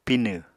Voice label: "Pinw", apatani

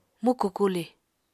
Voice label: "muku kuli", Apatani

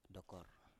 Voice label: "dokor" Biak

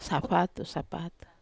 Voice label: "sapatu", Wamesa

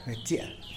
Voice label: "betia" louse; animals

